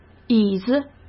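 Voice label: "椅子", Mandarin Chinese; hsk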